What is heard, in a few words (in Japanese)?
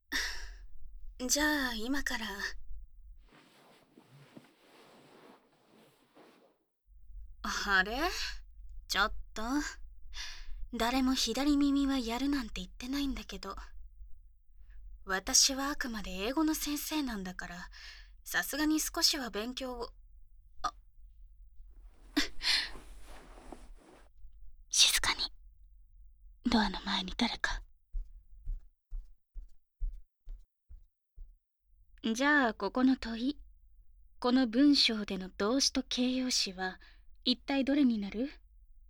耳かきしながらちょっぴり英語の勉強, ASMR